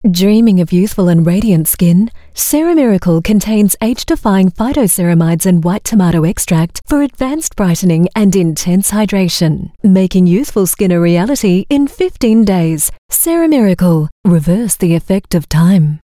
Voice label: AU MidAtlantic English Beauty Product TVC, Australian English Female; Ceramiracle